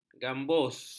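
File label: "gambus", Malay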